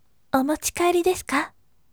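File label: voice, gentle; omochikaeri desuka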